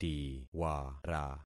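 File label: "tiwara", concatenated syllables; Affixing experiment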